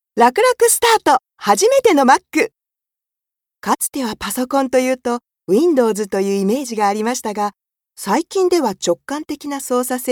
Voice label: 番宣

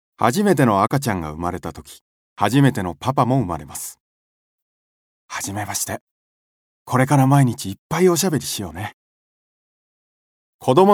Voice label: 誠実なCM

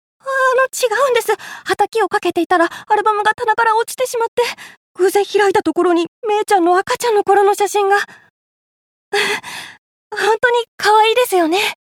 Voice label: 高校生

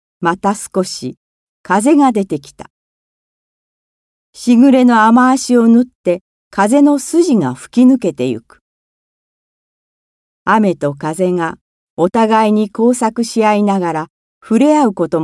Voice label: 朗読

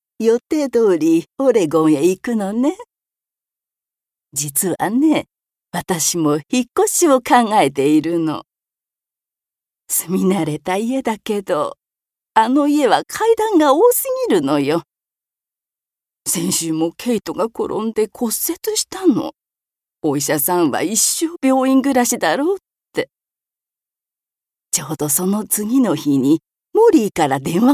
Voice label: 老人

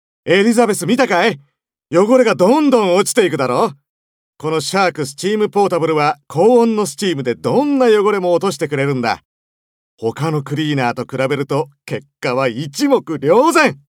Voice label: 通販番組

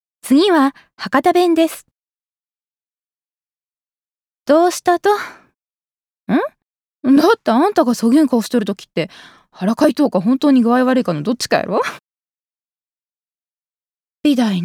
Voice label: 方言

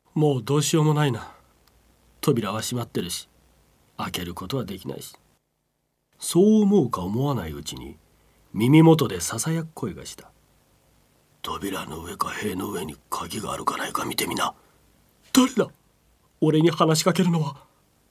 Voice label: 朗読

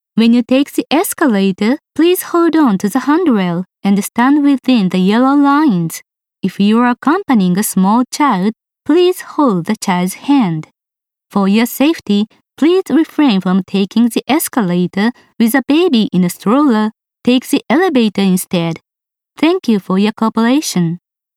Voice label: 英語

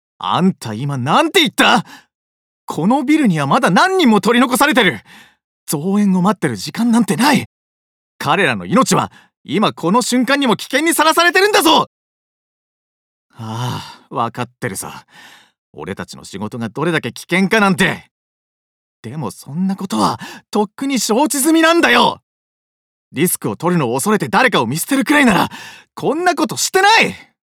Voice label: 消防隊員30代

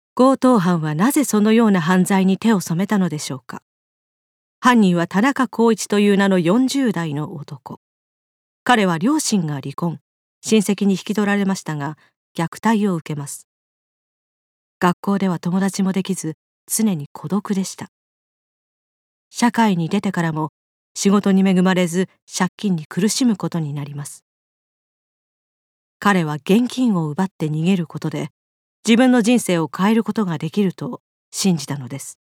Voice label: 物語解説ナレ

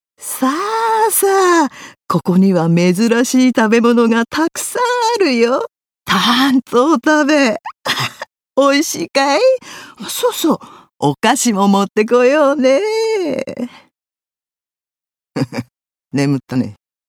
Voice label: 悪いおばあさん

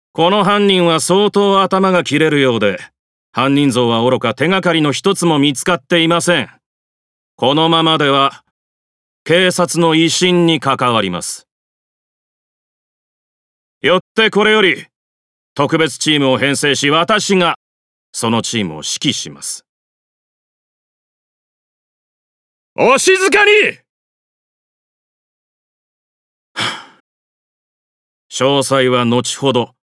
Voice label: 刑事40代

